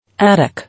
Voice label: "Attic", English